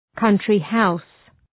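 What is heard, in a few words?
"country house", English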